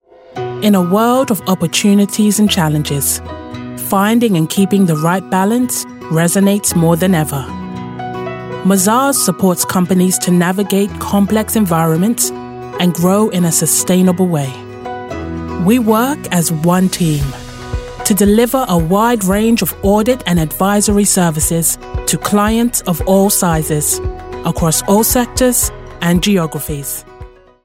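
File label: Corporate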